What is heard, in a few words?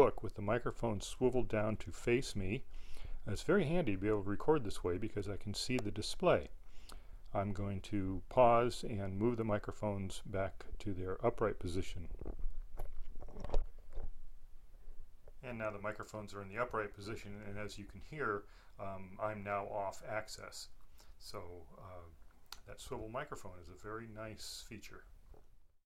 mic test